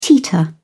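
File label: "teeter", British English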